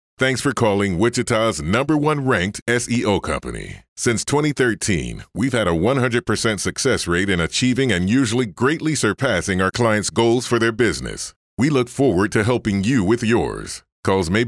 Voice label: IVR Messaging Systems